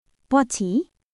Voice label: Nepali, basic phrases